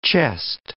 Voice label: "chest", English